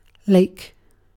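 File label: "lake", British English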